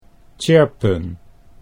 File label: "tjirpen", Dutch